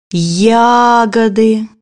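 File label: "berries", Russian; bukvar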